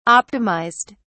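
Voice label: "optimized", British English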